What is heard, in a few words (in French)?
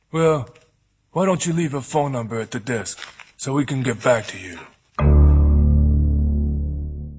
Voix Off Voice Over; Bull Thug